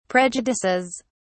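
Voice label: "prejudices", English, British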